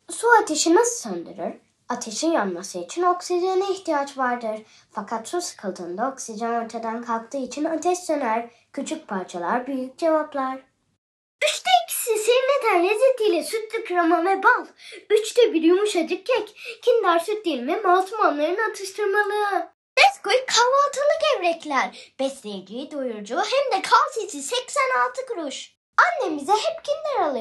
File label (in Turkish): Reklam